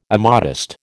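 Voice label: "immodest", American English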